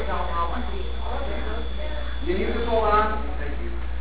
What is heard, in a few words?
extra soft voice